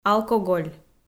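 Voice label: "алкоголь", Komi, female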